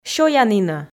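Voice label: "сёянінӧ", Komi, female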